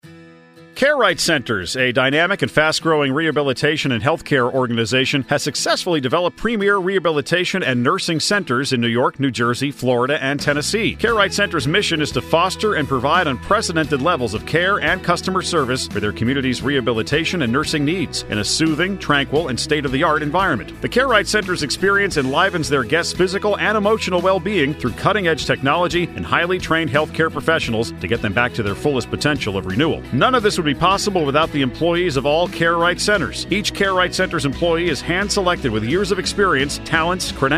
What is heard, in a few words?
Radio Spot